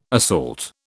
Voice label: "assault", British